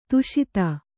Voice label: "ಧಾಷತ್ತು", Kannada